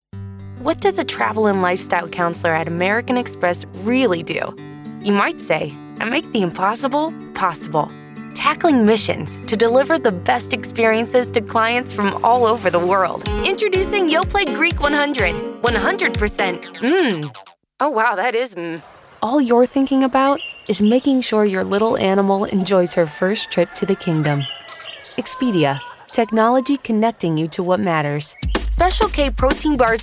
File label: Commercial